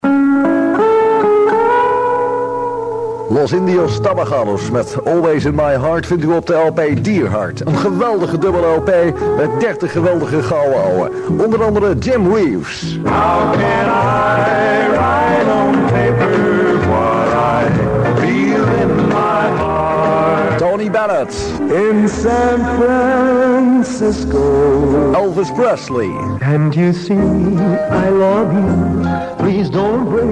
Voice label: commercial